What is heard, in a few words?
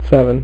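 "seven", male; MacCribbage; InterStellar MacCribbage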